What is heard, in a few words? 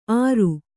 "ಅರು", Kannada